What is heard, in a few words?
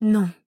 (French) No; Overwatch; Widowmaker